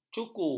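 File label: "cuku", Malay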